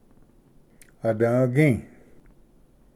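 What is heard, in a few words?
"advgi", Cherokee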